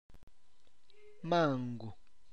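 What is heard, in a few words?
mango, Tlacochahuaya Zapotec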